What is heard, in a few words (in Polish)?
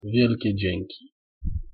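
"wielkie dzięki", Polish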